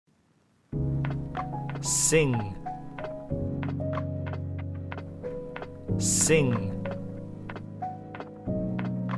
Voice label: sing